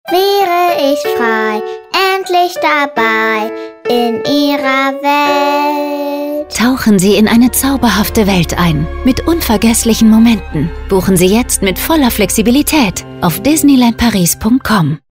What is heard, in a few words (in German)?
Radiospot; Pilatus, Central und Sunshine; Disneyland